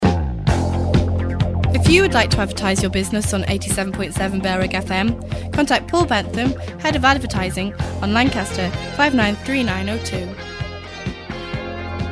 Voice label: female; bfm jingles ads RSL 1995